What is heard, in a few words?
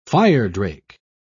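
"firedrake", British English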